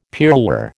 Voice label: "pearlware", American